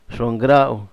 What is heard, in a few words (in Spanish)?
chintete, Zapoteco, Magdalena Ocotlan; Animales; INALI; Haspelmath